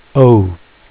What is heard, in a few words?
name o, phonics; フォニックス